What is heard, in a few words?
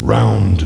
"round", announce; mk2